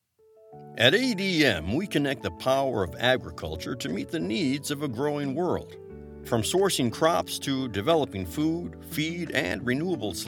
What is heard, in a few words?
corporate narration conversational authentic; ADM.